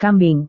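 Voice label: "kambing", Indonesian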